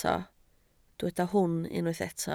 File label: "hwn", Welsh